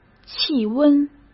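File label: "气温", Mandarin Chinese; chinese flashcards; hsk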